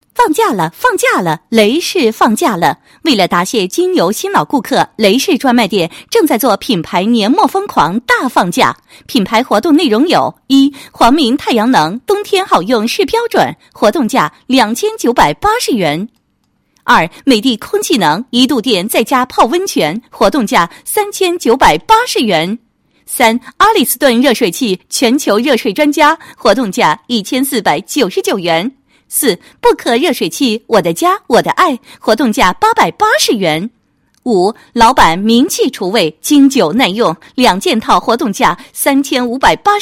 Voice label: 女 广告, 国语女声配音; 放价了; 雷士

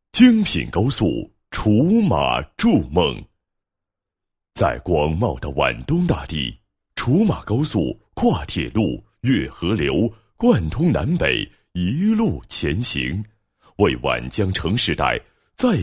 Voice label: 男-解说词-高速公路建设解说词, 配音案例; 定滁马高速公路

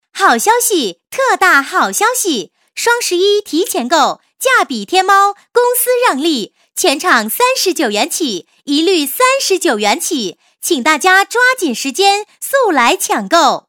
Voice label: 女 促销 好消息 39元起双十一, 国语女声配音; 彩铃促销

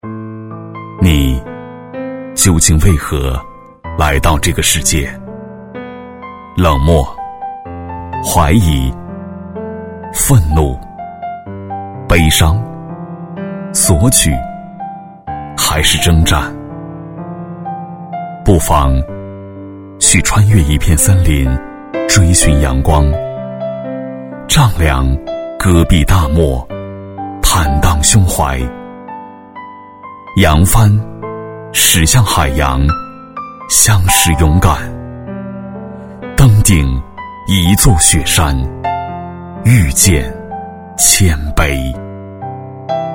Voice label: 男 微电影 内心独白 解说风格, putonghua

